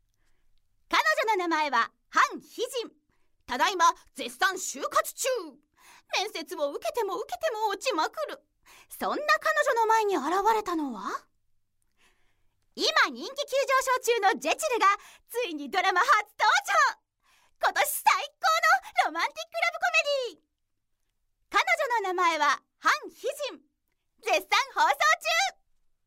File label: narration